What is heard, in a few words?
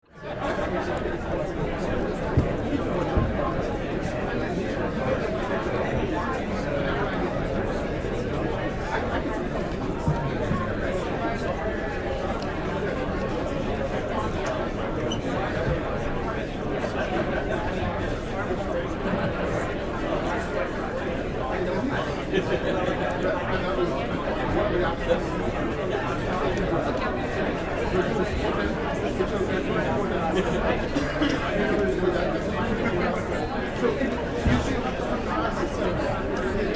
Crowd talking up-close